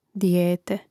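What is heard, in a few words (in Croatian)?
"dijete", Hrvatski; mreznik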